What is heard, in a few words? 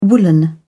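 "woollen", British English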